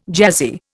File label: "jazzy", Indian